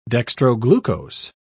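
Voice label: "dextroglucose", British English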